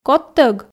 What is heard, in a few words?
"кодтӧг", Komi